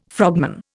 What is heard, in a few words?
"frogman", Indian